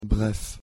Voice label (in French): pronunciation fr "bref"